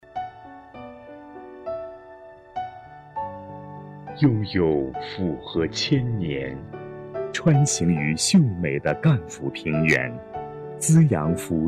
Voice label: 男 宣传片; 金溪下东漕小村选段

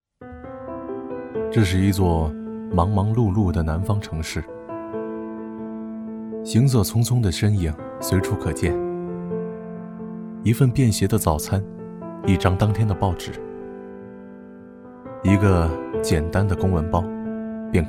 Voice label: 男 旁白 情感自然; 麦子的一天

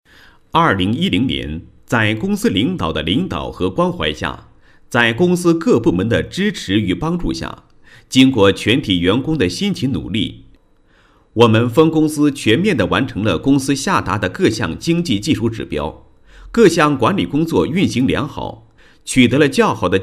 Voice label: 男 调研工作汇报, 国语男声配音 高音; 高音经济型

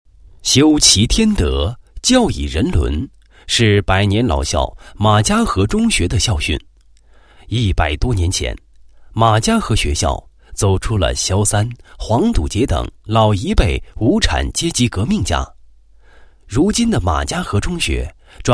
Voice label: 男 学校; 马家河中学

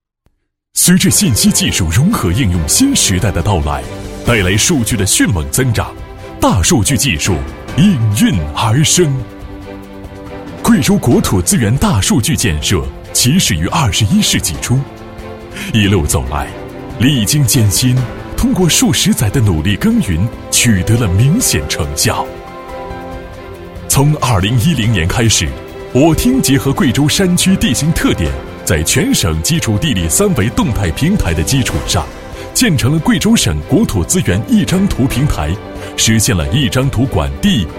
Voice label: 男 宣传片; 国土资源局; 国土资源大数据建设

